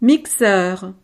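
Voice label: "mixeur", French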